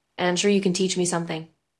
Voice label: Voice Acting es